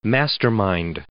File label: "mastermind" noun